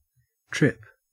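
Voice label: "tip", Australian English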